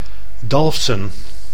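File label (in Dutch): "Dalfsen", Dutch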